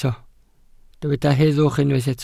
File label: "heddwch", Welsh